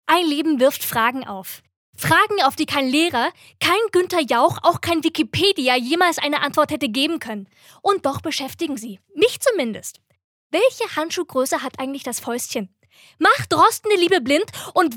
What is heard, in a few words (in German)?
Schauspiel Lebendig